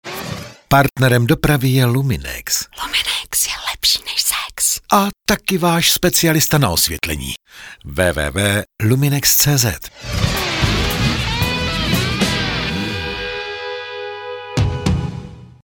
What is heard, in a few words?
Radio